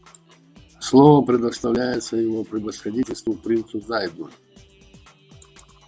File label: test audio ru; whisperx